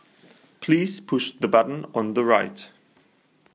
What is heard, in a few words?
Button Right Man